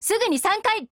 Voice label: ATTACK JP; MPL